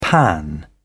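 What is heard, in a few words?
"pan out", British English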